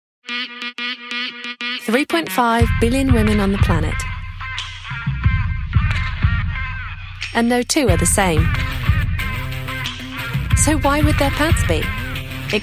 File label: UK English; AlwaysMyFit